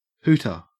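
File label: "hooter", Australian English; pronunciations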